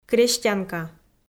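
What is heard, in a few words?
"крестьянка", Komi, female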